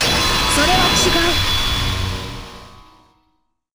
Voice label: objection japanese

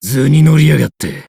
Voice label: casual; terumi

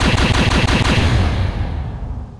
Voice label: guilty

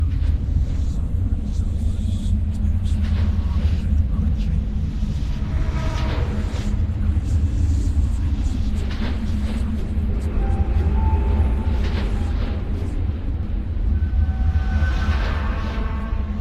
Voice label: whispers, ambience

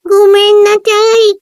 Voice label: depressed; monomi

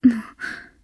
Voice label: worried; moeka

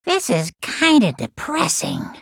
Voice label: depressing

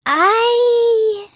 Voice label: confused; drv3

